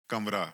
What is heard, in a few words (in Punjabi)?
"kamaraa", Punjabi